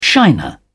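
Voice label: "shiner", British English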